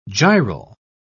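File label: "gyral", British English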